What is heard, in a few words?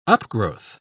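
"upgrowth", British English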